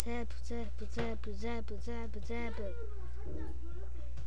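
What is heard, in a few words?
"jab", spoken word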